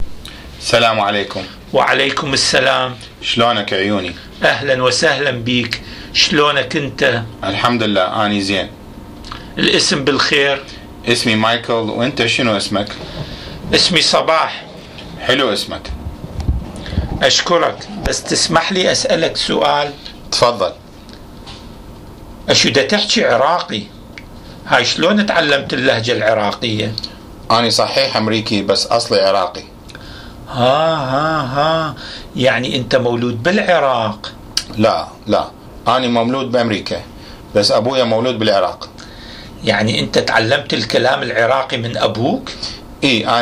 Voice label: Dialogue between US soldier Iraqi citizen, Iraqi Dialect